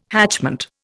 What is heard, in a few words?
"hatchment", Indian